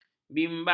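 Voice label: "bimbang", Malay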